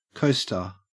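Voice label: "coaster", Australian English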